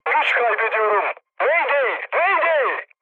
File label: damaged engine, mood high, speech tur